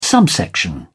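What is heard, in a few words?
"subsection", British English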